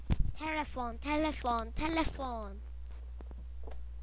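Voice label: "telephone", spoken word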